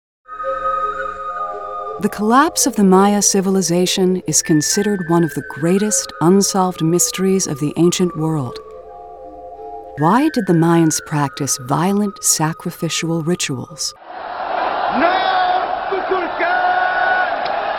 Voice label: Narr